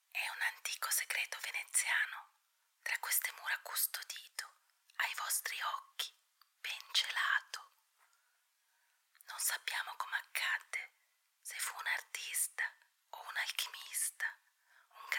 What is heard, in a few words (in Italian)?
sussurri, ita; carne